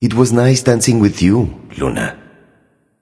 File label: taunt directed change; smite; loki